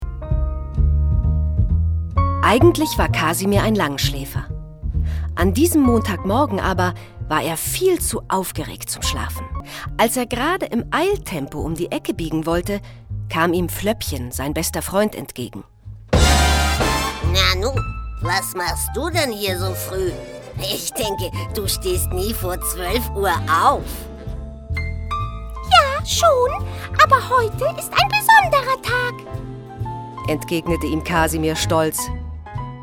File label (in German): Hörbuch; Hässchen Kasimir; LOFT VOICES